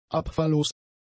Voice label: "abfalllos", German; adjectives